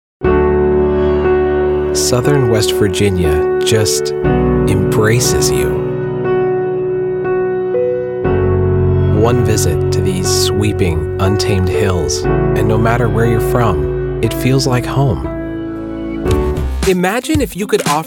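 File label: narration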